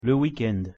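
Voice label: "le week-end", French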